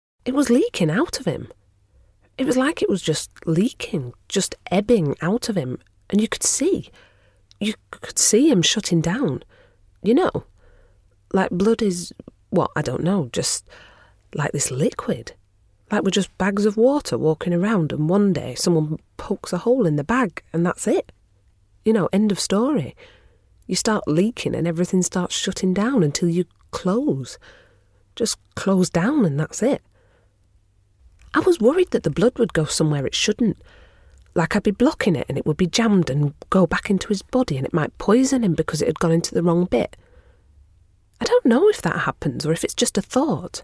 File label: drama; love money demo